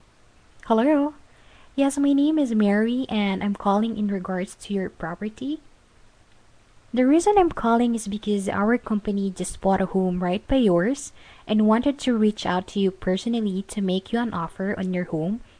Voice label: Voice message